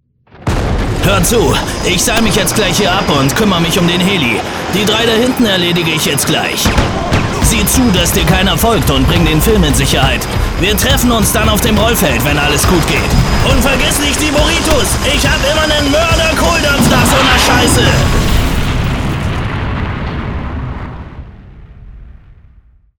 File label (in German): Actionheld mit Musik